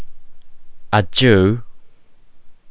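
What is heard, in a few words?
"adieu", Japanese, male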